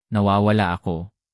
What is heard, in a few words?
"nawawala ako", tagalog; basic tagalog for travel